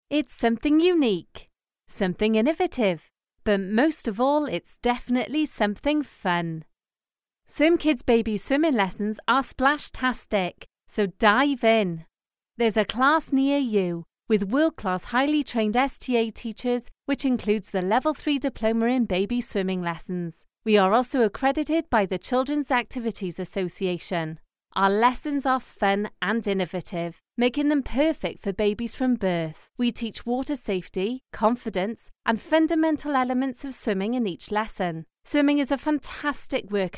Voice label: corporate